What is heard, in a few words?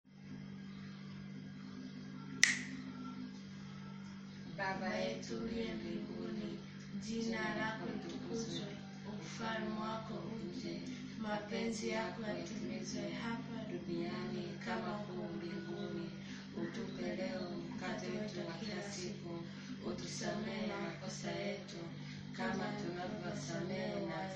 swahili